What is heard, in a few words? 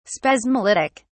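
"spasmolytic", British English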